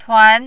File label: "tuan2", Mandarin